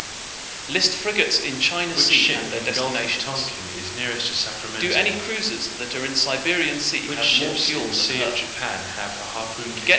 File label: mixture room D SNR10